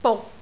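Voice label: "buk6", Cantonese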